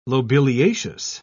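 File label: "lobeliaceous", English, British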